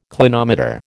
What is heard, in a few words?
"clinometer", American